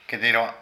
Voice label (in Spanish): Labio, Zapoteco de Petapa; Cuerpo; Vocabulary